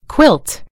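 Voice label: "quilt", British English; gcompris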